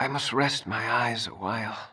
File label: Male; baldurs1